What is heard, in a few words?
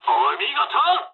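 player killed enemy mood med, speech japanese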